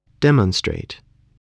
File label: "demonstrate", English